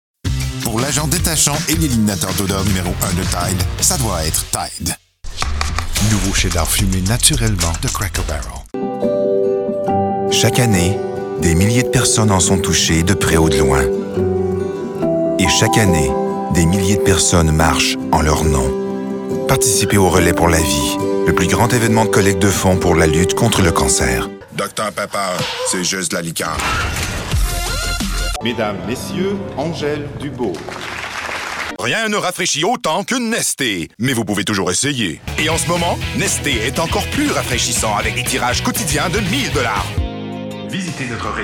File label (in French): Voix FR, voix